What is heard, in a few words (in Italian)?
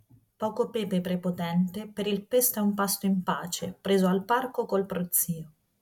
plosive tonor tc 777